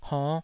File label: "honn5", Taiwanese syllable